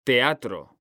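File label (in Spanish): "teatro", Spanish, male; mekanta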